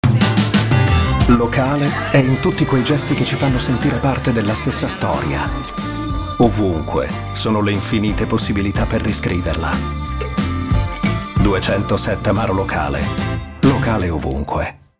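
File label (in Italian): amaro locale, spot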